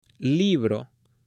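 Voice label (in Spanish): "LIBRO", Spanish, male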